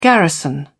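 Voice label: "garrison", British English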